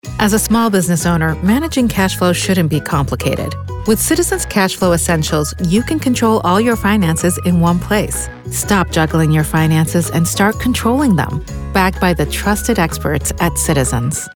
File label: spot Smart Sassy; Citizens Bank